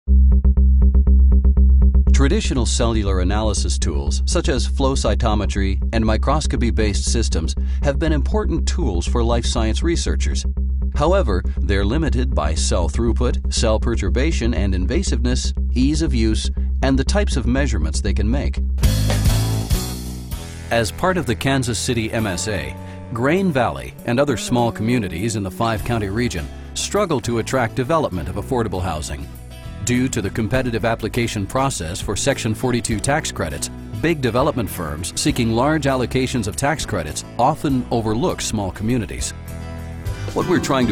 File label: Non Union Adult Male Corporate Narration